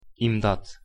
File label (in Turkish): "imdat", pronunciation tr